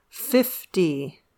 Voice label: "fifty", English